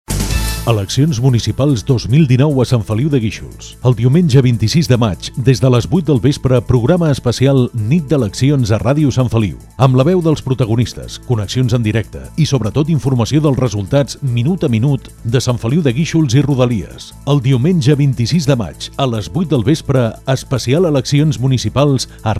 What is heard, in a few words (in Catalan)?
Promo Especial Eleccions